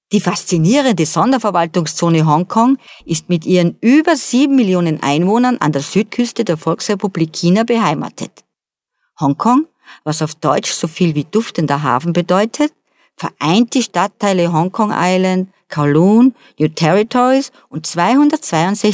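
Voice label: German female corporate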